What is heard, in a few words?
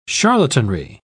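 "charlatanry", British English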